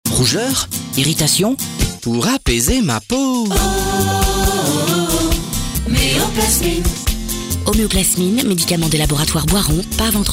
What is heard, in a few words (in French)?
radio Homme; Homeoplasmine